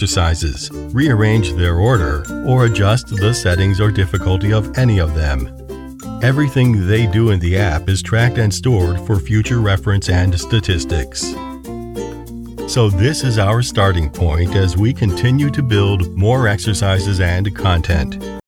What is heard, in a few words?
Recorded in home studio reading app; Levbee reading app